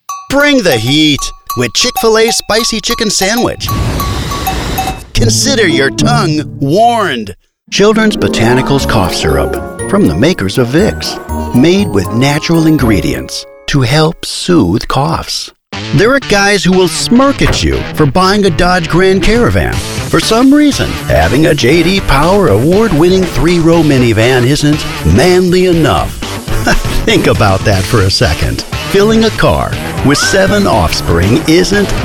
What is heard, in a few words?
Commercial